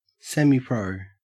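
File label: "semipro", Australian English; pronunciations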